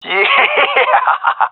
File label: round start, gendermerie male